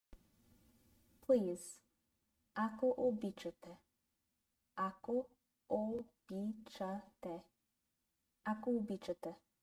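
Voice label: Please, Bulgarian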